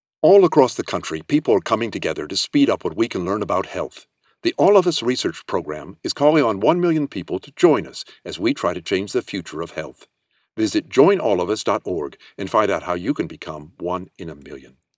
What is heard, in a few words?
psa radio spot english